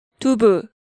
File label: "Dubu", Hangeul sounds; DigitalSoju